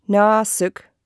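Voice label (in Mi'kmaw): "nasik", Mi'kmaq; wowkwis aqq kaqaquj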